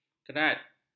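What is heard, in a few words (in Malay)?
"kerat", Malay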